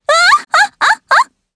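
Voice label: Vox Happy, Japanese; Aisha; kingsraid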